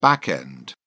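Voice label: "back end", British English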